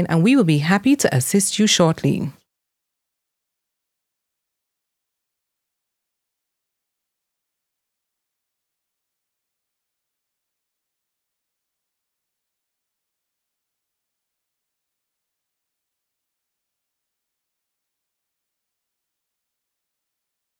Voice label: PBX Message